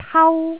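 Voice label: "tau1", Cantonese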